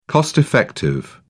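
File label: "cost-effective", British English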